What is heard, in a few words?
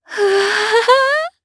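Vox Happy, Japanese; Shamilla; kingsraid audio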